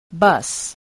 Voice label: "bus", spelling